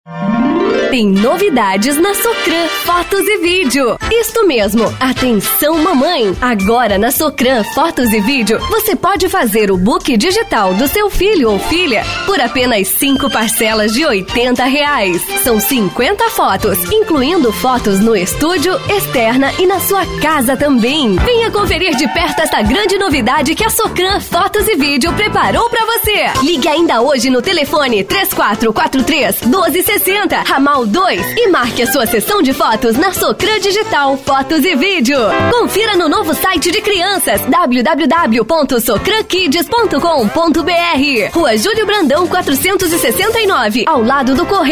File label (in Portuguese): spot comercial